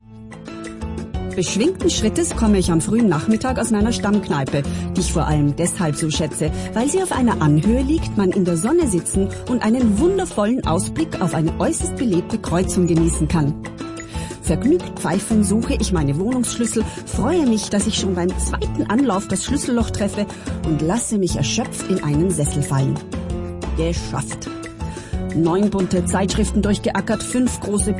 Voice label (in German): Gossip Prosa